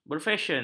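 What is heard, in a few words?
"berfesyen", Malay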